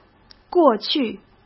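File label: "过去", Mandarin Chinese; hsk; chinese flashcards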